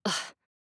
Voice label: Emote Negative

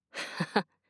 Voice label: Nao Emote Positive

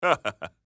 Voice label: Emote Positive

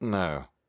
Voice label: Emote Negative

